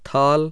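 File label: voiceless aspirated dental, hindi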